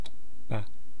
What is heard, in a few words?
"pa", East Cree syllabics